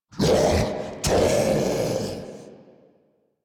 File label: Spawn Grineer Ghoul Devourer, en